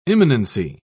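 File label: "imminency", British English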